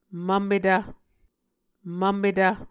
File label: "mvmetv", Muscogee